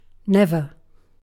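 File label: "never", British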